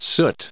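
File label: "soot", US English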